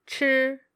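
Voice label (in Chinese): "chi", Mandarin Chinese, female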